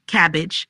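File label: "Cabbage", pronunciation; vegetables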